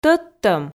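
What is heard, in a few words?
"тӧдтӧм", Komi, female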